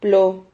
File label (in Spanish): "plo", Silabas